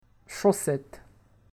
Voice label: "chaussette", French

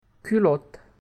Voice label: "culotte", French

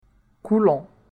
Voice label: "collant", French

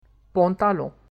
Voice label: "pantalon", French

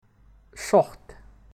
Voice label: "short", French

